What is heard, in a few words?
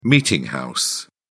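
"meeting house", English, British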